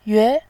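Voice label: "yue", Mandarin Chinese, tone 2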